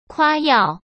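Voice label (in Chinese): "夸耀", Mandarin; chinese flashcards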